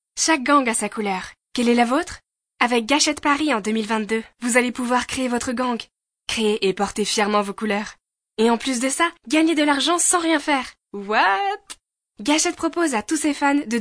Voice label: French Voice Over Sample

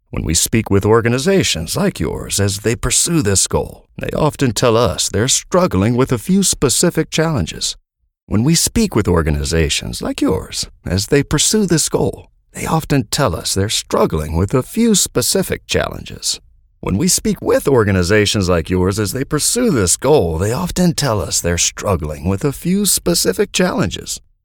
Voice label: English Voiceover

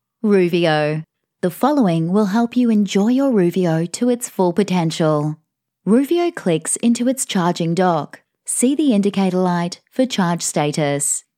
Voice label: English Voice Over Sample

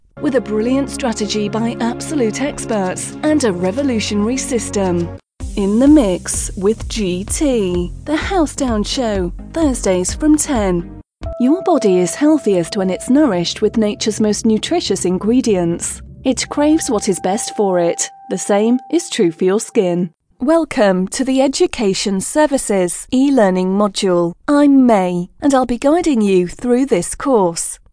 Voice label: English Voice Over

